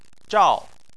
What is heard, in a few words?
"zhao4", Chinese; Chinese Surnames